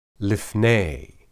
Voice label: "lipney", Hebrew